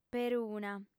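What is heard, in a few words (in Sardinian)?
"peruna", female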